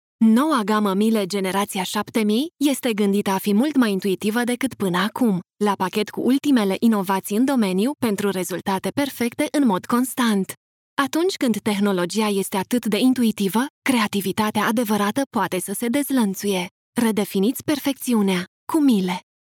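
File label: Romanian, female, TV commercial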